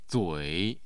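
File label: "zui3", Mandarin Chinese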